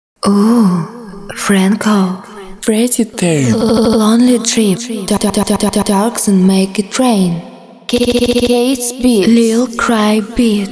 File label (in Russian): Voicetags Примеры женских войстэгов